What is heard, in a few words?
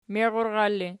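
"meirural" ergative, Archi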